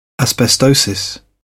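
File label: "asbestosis", British English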